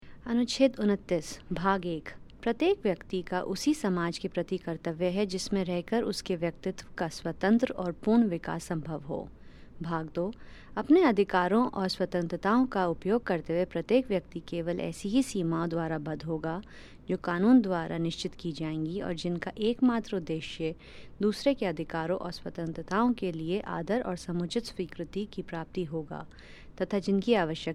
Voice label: Hindi

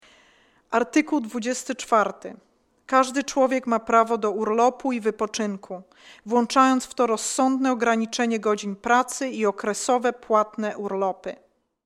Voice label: Polish

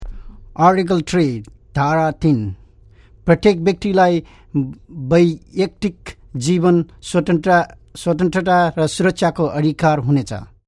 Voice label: Nepali

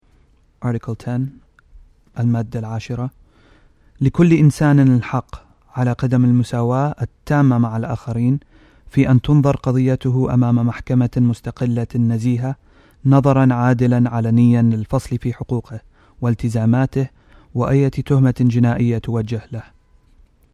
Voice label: Arabic

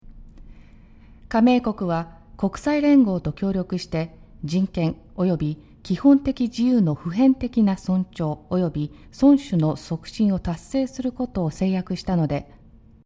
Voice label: Japanese

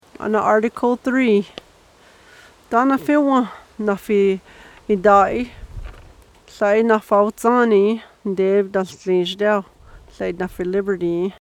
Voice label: Apache

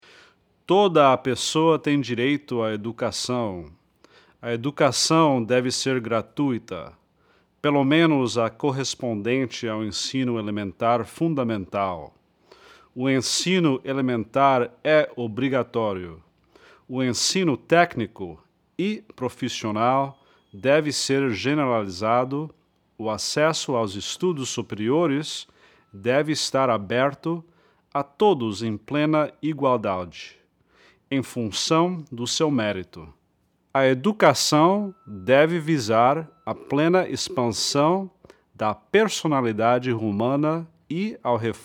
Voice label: Portuguese

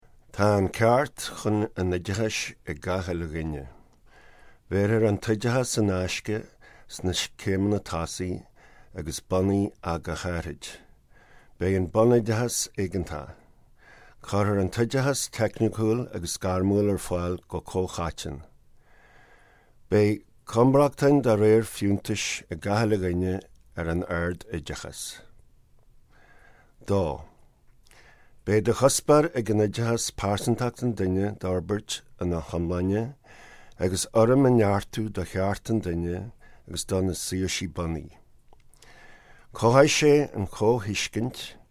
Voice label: Gaelic

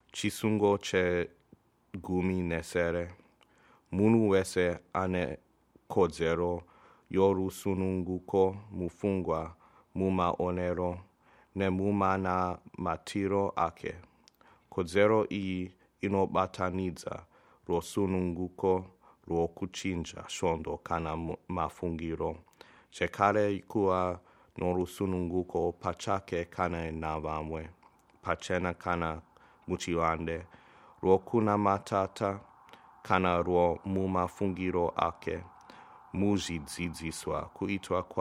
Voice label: Shona

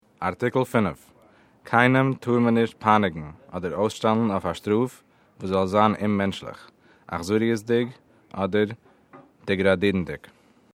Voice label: Yiddish

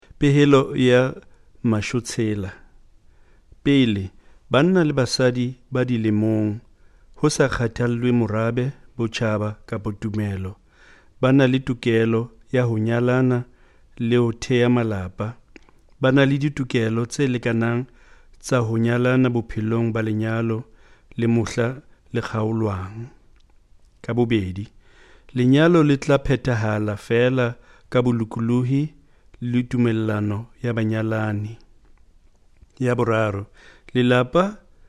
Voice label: Sesotho

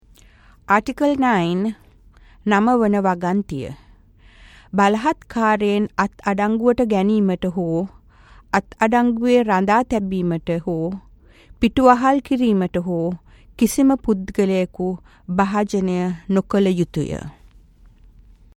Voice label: Sinhalese

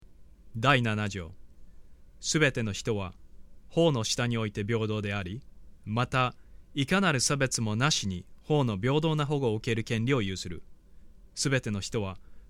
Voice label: Japanese

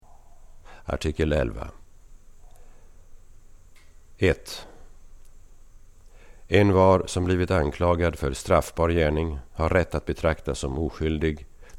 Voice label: Swedish

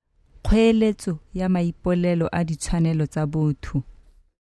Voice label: Tsewana

